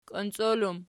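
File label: "kionciol" plural, Archi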